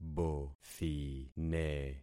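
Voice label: "bothine", concatenated syllables; Affixing experiment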